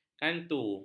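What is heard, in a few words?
"kantu", Malay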